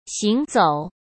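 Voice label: "行走", Mandarin Chinese; chinese flashcards; hsk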